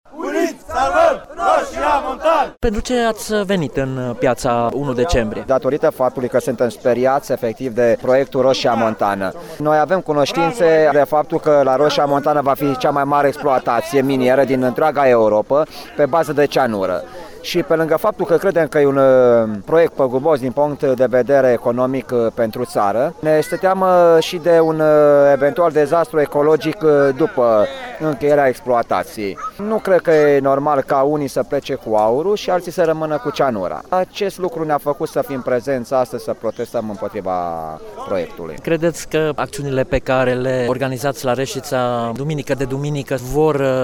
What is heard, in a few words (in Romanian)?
vox protestatari